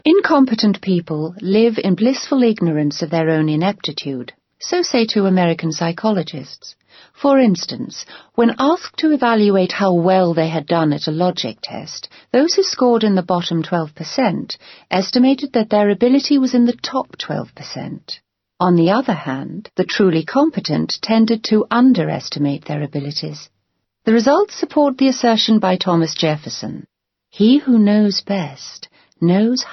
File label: Narration